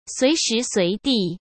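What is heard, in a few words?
"随时随地", Mandarin Chinese; hsk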